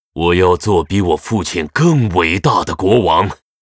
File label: pissed; Evil Arthas; zh